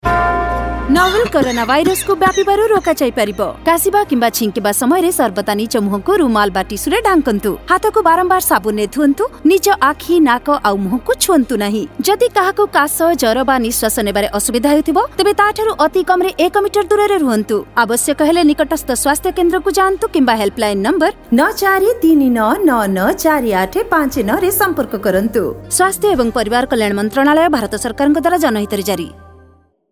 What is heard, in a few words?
Cough Radio Odiya; Radio spot on key behaviours COVID-19 Odiya; SARS-CoV-2; UNICEF IEC eWarehouse